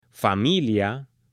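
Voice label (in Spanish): "familia", Spanish, female; AppLenguaje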